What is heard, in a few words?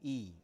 "i", Biak